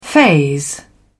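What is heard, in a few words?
"phase", British English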